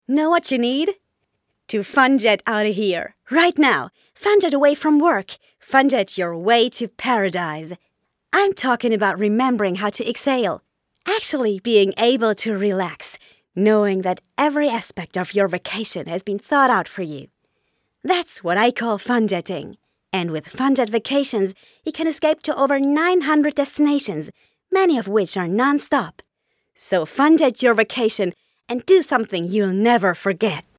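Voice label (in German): British English, Lebendig; Fremdtake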